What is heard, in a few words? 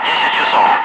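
Russian speech, Ka-50 Wingman; DCS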